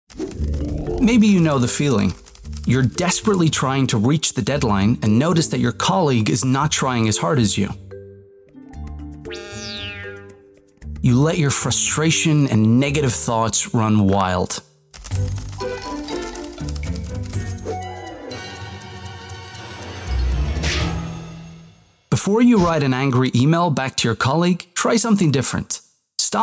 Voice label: Mindfulness Practice US Akzent; SAP